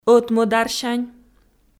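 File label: "ӧтмӧдарсянь" Komi, female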